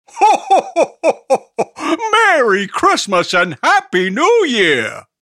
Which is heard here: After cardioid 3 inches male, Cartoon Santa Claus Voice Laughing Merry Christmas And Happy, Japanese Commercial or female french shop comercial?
Cartoon Santa Claus Voice Laughing Merry Christmas And Happy